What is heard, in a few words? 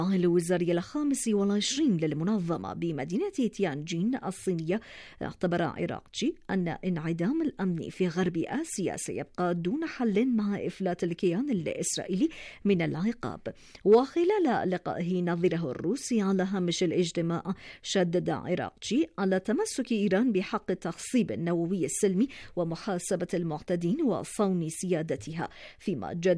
Arabic